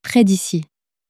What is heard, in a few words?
"pres d ici", french; flashcards